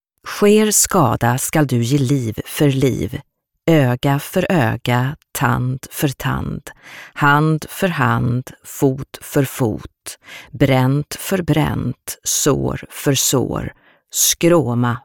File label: SWE Audiobook f